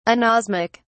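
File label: "anosmic", British English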